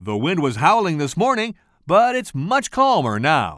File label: commentator